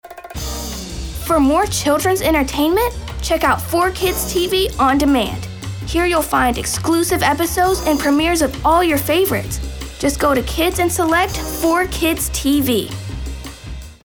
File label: kids; KIDS ON DEMAND